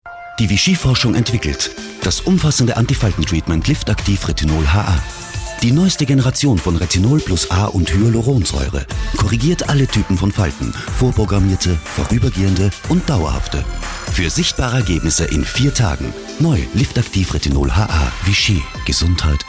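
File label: german Austrian male commercial; voice demos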